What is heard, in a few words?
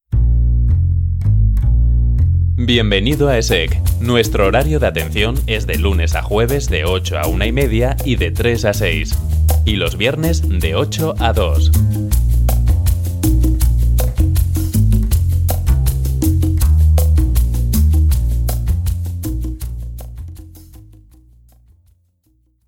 Spanish Castilian IVR